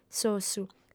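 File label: "s'ossu", female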